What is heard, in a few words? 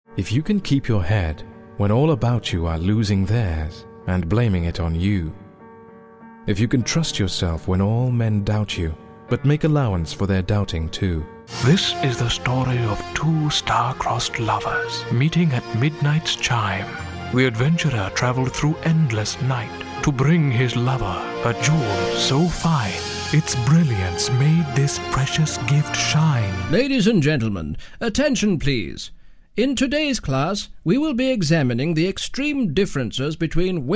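English UK, general; GoLocalise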